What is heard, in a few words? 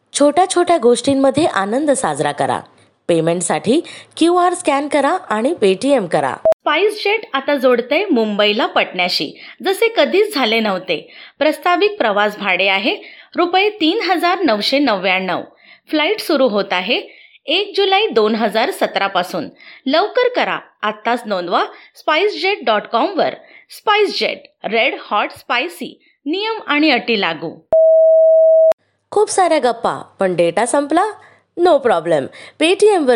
marathi